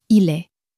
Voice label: "il est", French

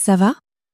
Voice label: "ca va", french; flashcards

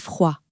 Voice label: "froid", French

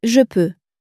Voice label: "je peux", french; flashcards

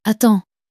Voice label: "attends", french

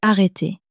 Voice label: "arreter", french

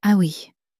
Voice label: "ah oui", french

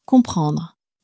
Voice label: "comprendre", French; flashcards

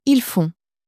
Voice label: "ils font", French

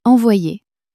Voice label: "envoyer", French

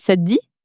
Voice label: "ca te dit", French

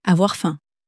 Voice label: "avoir faim", french; flashcards

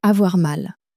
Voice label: "avoir mal", french

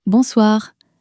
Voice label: "bonsoir", French; flashcards

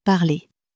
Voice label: "parler", French; flashcards

